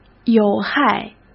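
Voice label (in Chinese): "有害", Mandarin Chinese; chinese-flashcards; hsk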